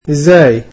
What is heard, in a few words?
"how", egyptian